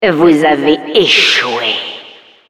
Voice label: Announcer you failed, fr

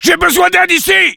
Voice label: Sniper help me, fr

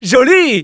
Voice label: Scout cheers, fr; "Joli !"

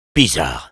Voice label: Sniper jeers, fr; "Bizarre!"

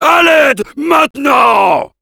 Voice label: Heavy help me, fr; "À l'aide, maintenant!"

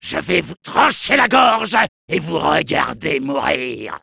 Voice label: Soldier taunts

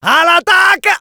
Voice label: Medic battle cry, fr

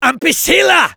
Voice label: Medic jeers

